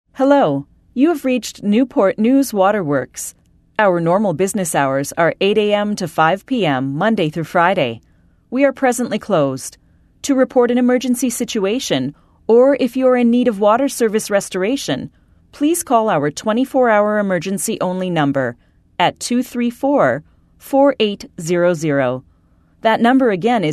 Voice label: Voice Prompt